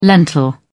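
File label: "lentil", British English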